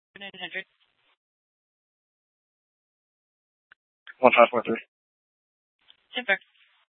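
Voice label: Police Department North Dispatch, scanner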